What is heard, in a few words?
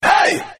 "hey" group of men; ooh ahh